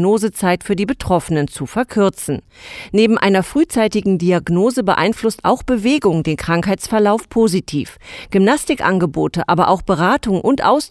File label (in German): Infomercial Morbus Bechterew